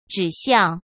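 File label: "指向", Mandarin Chinese; chinese-flashcards; hsk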